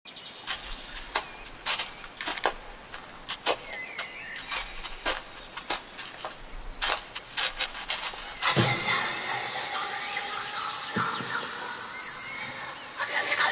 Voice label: ASMR Roleplay; Lewd; Futa Forest Witch Milks You; R18+

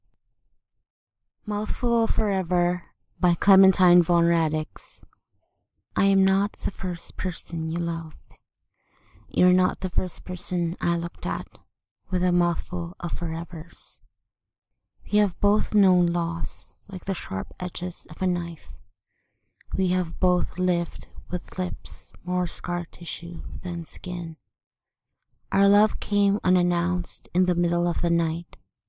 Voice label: F, Poetry, Romantic; Mouthful of Forever by Clementine Von Radics

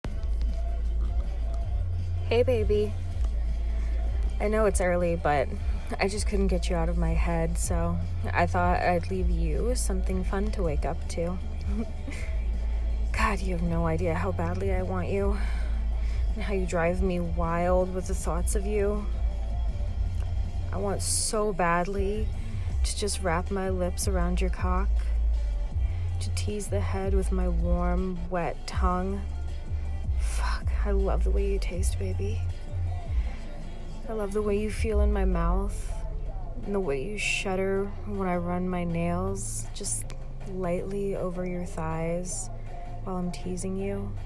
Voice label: Leaving you a naughty voicemail

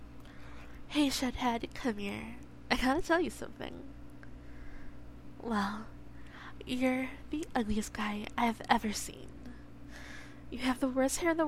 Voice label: Tsundere

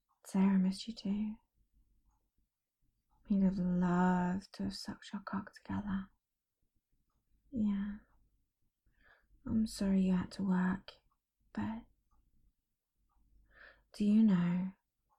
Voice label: Binaural, Dirty Voicemail; Binaural Sample piece for Onlyfans

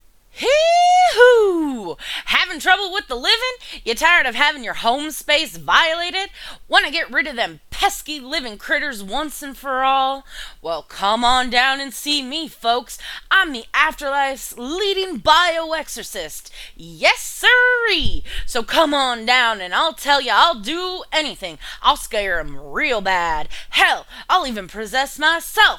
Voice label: Monologue, southern accent; Monologue Challenge; Beetlejuice Monologue

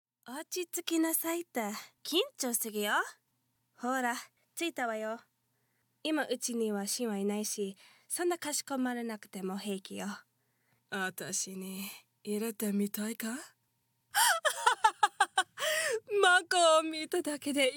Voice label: Japanese Language Character; 日本語アニメーション・キャラクターボイスサンプル; Erotic audio

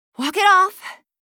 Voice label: AI Uhoh; Li Li; Heroes of the Storm; warcraft

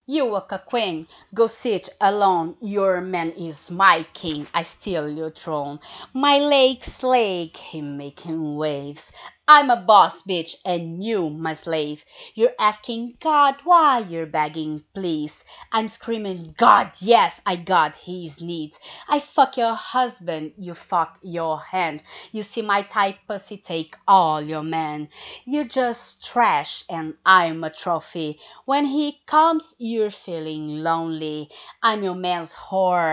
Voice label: Poetry attempt of Rap, Brazilian Accent; F4F

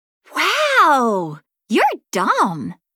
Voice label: Social Taunt; Li Li; Heroes of the Storm; warcraft

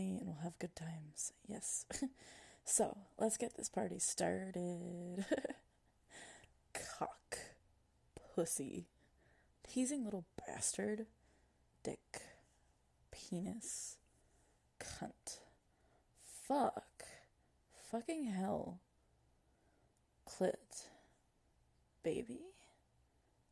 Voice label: Accent Challenge

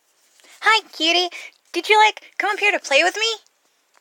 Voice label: Energetic

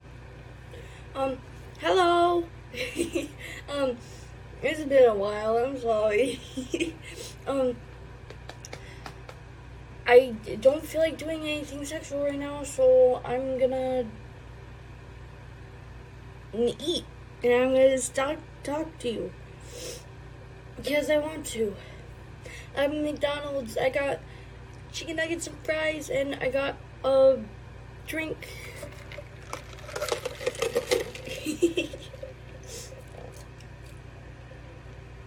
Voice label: Eating and talking, chewing noises, rambling; food; I change the subject alot

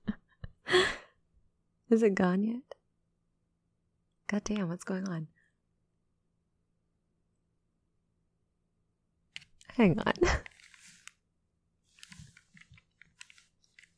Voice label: F4A Airplane ASMR Blooper

